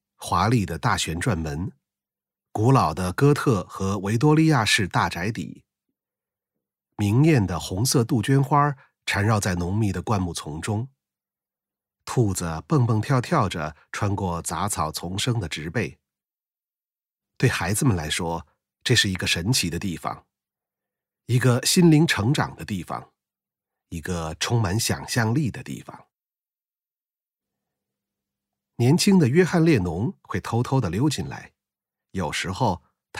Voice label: chinese mandarin narration